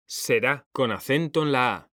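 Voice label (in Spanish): "será", Spanish; mekanta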